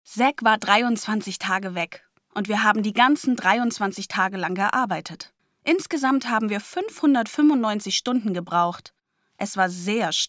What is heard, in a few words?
VoiceOver